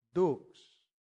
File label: "dux", latin; santillana